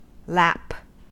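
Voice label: "lap", American English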